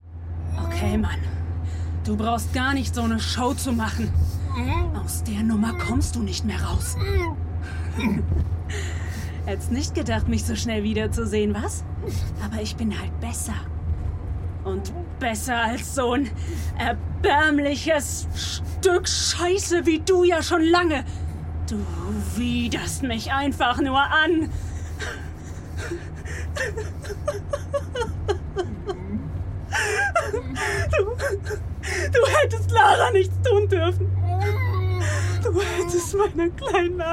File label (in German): Monolog